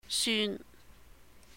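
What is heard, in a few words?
"syūn", Cantonese; grand children; 孫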